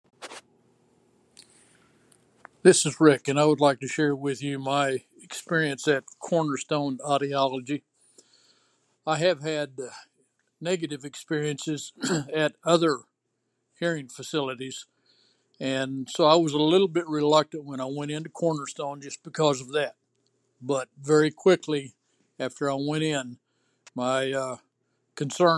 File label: voice testimonial